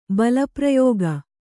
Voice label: "ಬಲಪ್ರಯೋಗ", kannada

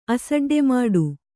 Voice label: "ಅಸಡ್ಡೆಮಾಡು", Kannada

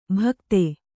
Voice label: "ಭಕ್ತೆ", Kannada

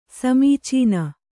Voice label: "ಸಮೀಚೀನ", kannada

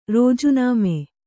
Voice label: "ರಾಜೀನಾಮೆ", Kannada

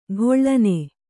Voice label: "ಕಳನಿ", Kannada

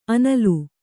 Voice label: "ಆನಿಲ", Kannada

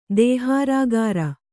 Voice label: "ದೇಹಾರಾಗಾರ", Kannada

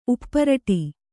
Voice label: "ಉಪ್ಪರಟಿ", Kannada

